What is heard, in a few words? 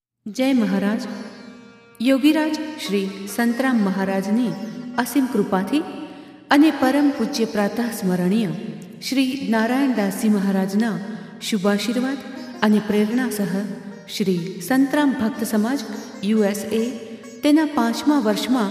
Announcement